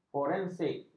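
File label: "forensik", Malay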